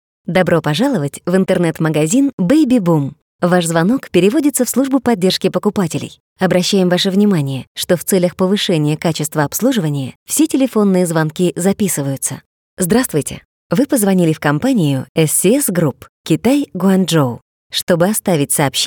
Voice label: ivr, ivr-menu